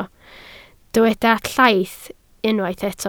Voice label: "llaith", Welsh